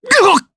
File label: Vox Damage jp; Shakmeh; kingsraid; voices heroes